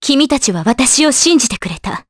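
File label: voice Inn, voices heroes, Japanese; Scarlet; kingsraid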